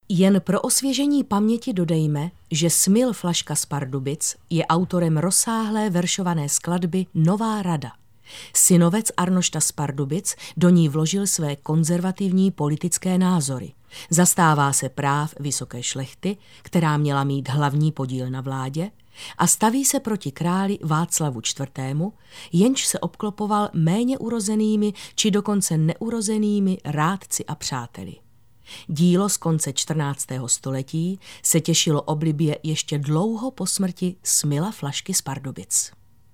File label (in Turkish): Cekce Kadin, Kadın Ses